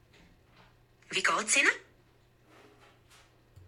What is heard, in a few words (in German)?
wie goats inne Zurich female